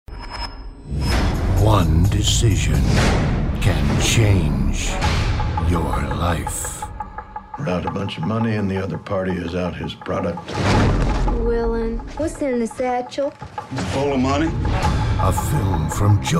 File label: Voice Actor, Trailers